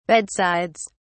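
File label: "bedsides", English, British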